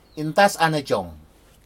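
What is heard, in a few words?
intas aneijom, Aneityumese language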